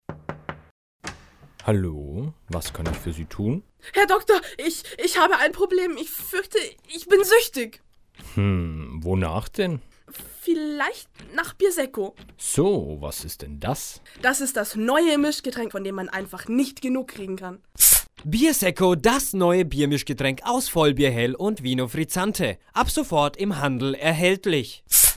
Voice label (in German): Werbung; Irlbacher